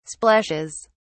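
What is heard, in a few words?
"splashes", British English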